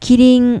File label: "kirin", Japanese